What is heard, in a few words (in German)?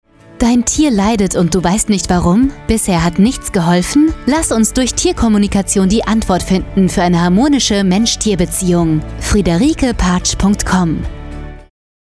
Funkspot; Tierkommunikation und Traumaheilung